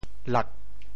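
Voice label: "lak4", Teochew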